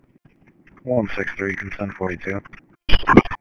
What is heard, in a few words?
Police Sheriff Dispatch